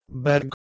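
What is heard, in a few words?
"bhergh", Proto-Indo-European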